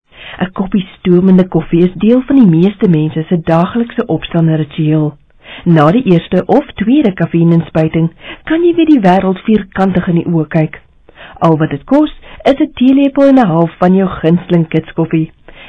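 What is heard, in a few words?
Afrikaans female voice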